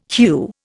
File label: "HEW", Indian